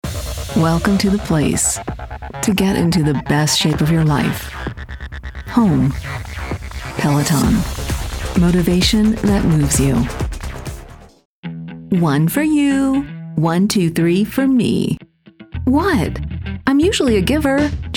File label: Commercial